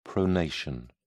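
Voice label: "pronation", British English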